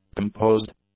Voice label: "composed", English; adjectives

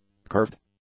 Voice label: "curved", English; adjectives

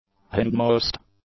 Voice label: "hindmost", English; adjectives

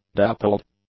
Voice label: "dappled", English; adjectives

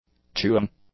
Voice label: "chewing", English; nouns

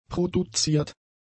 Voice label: "produziert", German; adjectives

